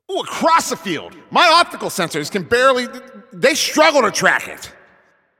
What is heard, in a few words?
Announcer Long Hit To Other Side